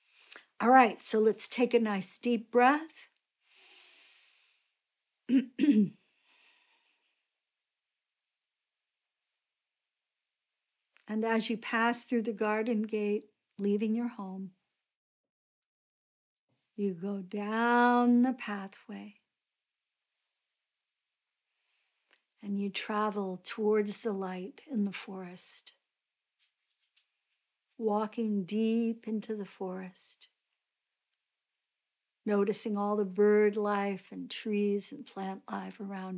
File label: Meditation Audio; 4 Aspects training